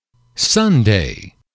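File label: "sunday", English, male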